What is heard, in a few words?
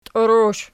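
"tiurush" nominative, Archi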